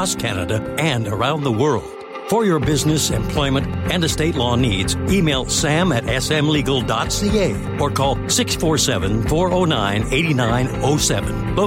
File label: Legal Radio Ad